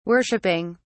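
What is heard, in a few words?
"worshiping", British English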